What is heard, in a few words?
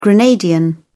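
"grenadian", British English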